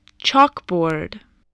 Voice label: "chalkboard", English, voice